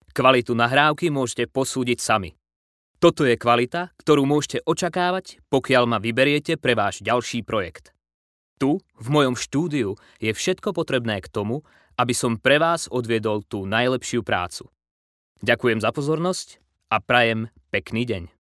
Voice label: slovak voiceover locutor eslovaco